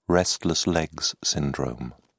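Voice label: "restless legs syndrome", English, British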